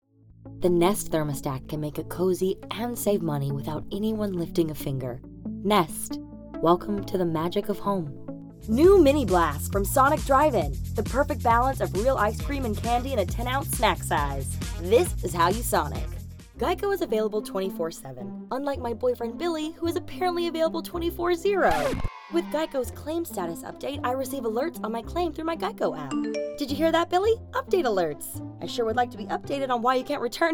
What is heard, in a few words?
commercial